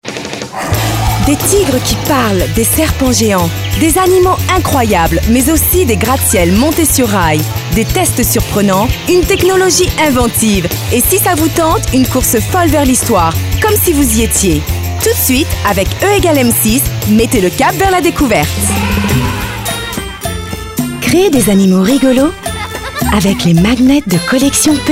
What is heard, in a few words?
French